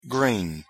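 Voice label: "green", English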